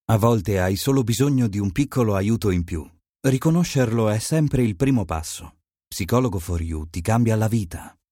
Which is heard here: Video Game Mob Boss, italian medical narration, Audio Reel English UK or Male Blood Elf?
italian medical narration